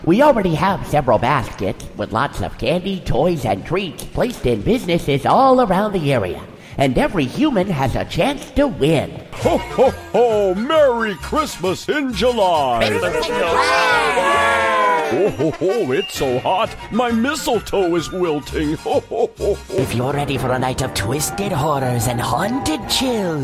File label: Characters And Impersonations